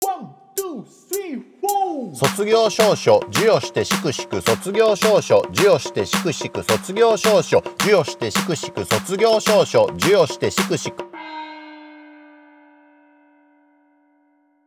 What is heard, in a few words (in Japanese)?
早口言葉リズム（中級編）